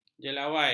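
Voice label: "jelawai", Malay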